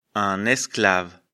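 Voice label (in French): "esclave", French; afrikalan; lecture fr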